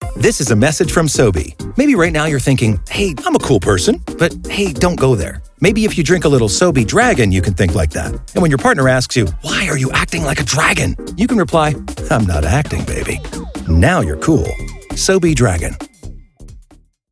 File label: commercial; sobe dragon